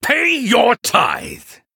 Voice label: Male Venthyr Attack